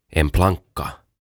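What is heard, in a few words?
"En planka" Swedish; Lankku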